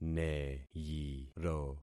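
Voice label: "neyiro", concatenated syllables; Affixing experiment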